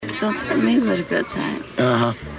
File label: threaten